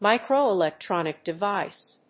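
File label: "microelectronic device", English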